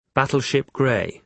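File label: "battleship grey", British English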